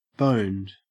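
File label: "boned", Australian English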